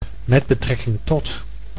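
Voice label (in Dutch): "met betrekking tot" Dutch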